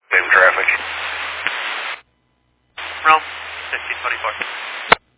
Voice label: Fire Dispatch

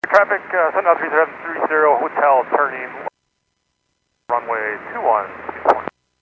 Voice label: Airports 122.7, Radio; KSTE

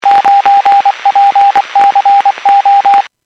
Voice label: Skywarn 146.67; Stevens Point

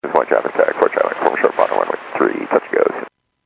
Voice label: Airports 122.7, Radio; KSTE

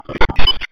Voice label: Police Sheriff Dispatch

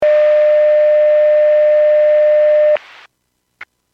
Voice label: Fire Dispatch

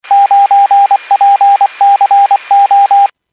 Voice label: Skywarn 146.67; Stevens Point

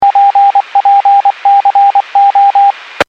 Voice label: Skywarn 146.67; Stevens Point

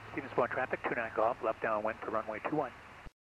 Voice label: Aviation 122.7; KSTE

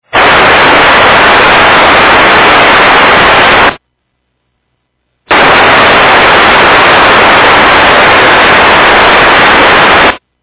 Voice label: EMS, radio

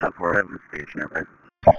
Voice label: Police Sheriff Dispatch

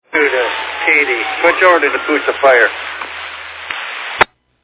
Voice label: Fire Dispatch

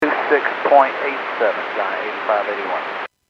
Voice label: Airports 121.5 Emergency, Radio

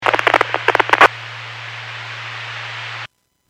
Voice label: Skywarn 146.67; Stevens Point

